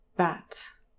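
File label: "bat", English, TRAP /æ/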